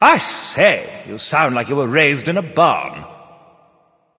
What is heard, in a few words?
taunt directed; fenrir; apollo